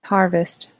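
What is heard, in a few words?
"harvest", English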